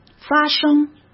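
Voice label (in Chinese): "发生", Mandarin Chinese; hsk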